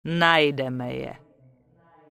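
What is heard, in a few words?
Night Elf Female error party full, Czech; wowpreklad; czwow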